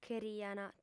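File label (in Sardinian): "cheriant", female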